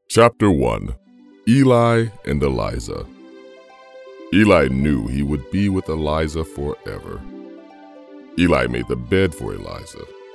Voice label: Video Narration